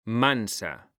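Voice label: "mansa", Spanish, male; mekanta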